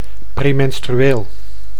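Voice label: "premenstrueel", Dutch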